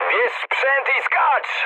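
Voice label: bailout, mood high, Polish speech; War Thunder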